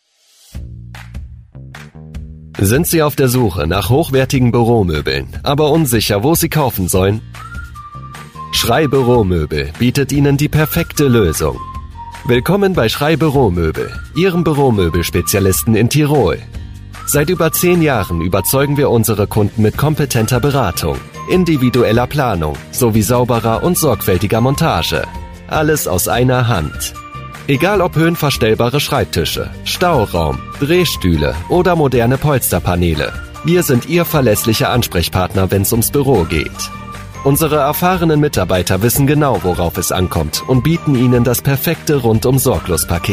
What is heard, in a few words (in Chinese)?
德语男声